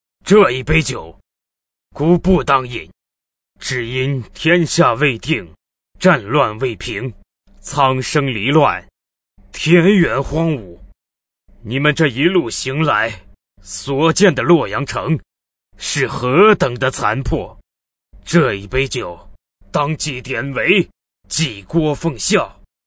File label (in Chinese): 男声 角色; 曹操